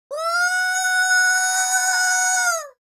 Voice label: scared, Geordie; speech